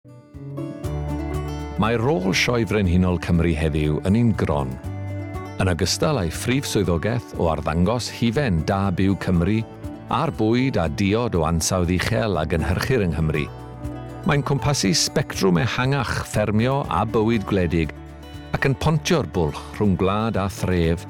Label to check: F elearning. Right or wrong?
Wrong